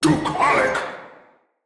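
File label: Attack Heavy, Battle Barks, Dialog, English; Nimrod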